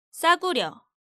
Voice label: "Ssaguryeo", Korean

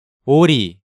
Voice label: "Ori", Korean

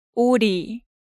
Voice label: "Ori", Korean

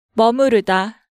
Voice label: "Meomuruda", Hangeul sounds; DigitalSoju

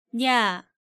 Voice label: "nya", Korean

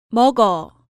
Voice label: "Meogeo", Korean

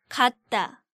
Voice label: "gatda", Korean

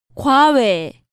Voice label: "Gwaoe", Korean

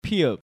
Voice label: "Pieup", Korean

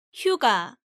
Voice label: "hyuga", Korean

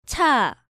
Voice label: "Cha", Korean

